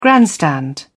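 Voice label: "grandstand", English, British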